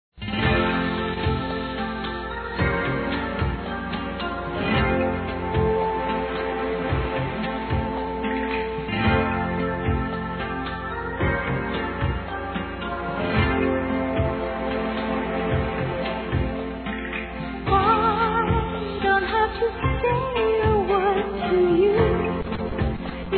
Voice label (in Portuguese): Preciso de Alguem Você Feminino, Telemensagens; Amizade